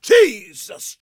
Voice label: preachin, gospel preacher